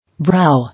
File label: "Brow", English